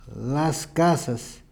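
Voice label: "las casas", Spanish; vokale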